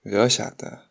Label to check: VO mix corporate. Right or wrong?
Wrong